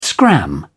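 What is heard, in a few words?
"scram", British English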